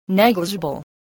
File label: "negligible", voice